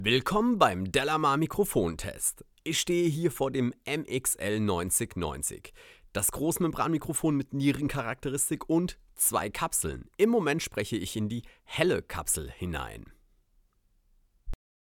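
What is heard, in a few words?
speech male mxl 9090brt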